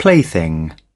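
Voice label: "plaything", British English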